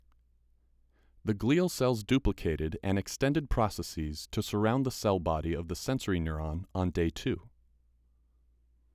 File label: voiceover